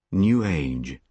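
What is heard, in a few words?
"new age", British English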